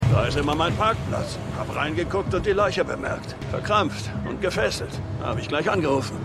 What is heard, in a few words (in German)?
Lkw Fahrer, Stimmproben; LO SVU